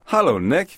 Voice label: Conversation